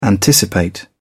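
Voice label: "anticipate", English, British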